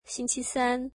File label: "Wednesday", mandarin